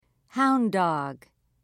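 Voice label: "hound dog", British English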